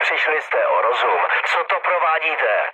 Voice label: player killed ally, speech cze, mood low